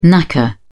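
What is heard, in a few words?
"knacker", British English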